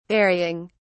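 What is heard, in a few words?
"varying", British English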